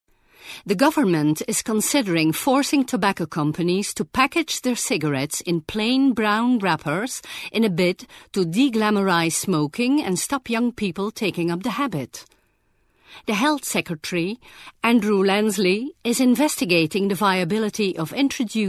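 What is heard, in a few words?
Dutch English F Narration; accent of the netherlands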